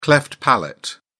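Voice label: "cleft palate", English, uk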